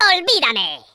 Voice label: leave me alone, Spanish